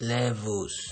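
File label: "levels", Nigerian Pidgin, male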